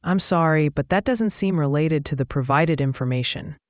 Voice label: question english